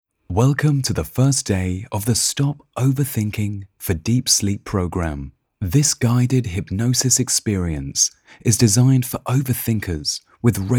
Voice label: Meditation